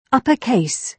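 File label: "upper case", British English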